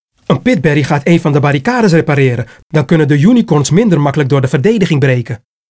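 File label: Bonus Dutch; arthur and the revenge of maltazard; wii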